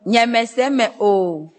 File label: "no understand", Ewe